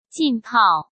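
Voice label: "浸泡", Mandarin Chinese; chinese-flashcards; hsk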